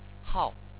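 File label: "hao4", Chinese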